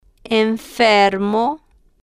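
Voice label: "enfermo", Spanish, female, slow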